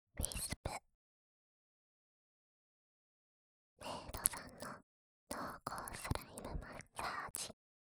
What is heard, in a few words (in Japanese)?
タイトルコール